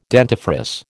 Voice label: "dentifrice", American